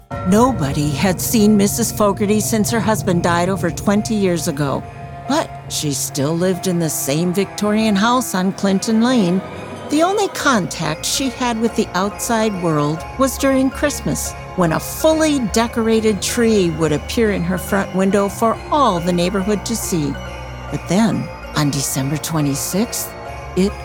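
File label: narration story; Vibrant Voices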